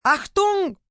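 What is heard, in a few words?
Attention German, female, German; Calls, attention, foreign; Talking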